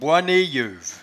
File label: "boinneeyeuve", patois jura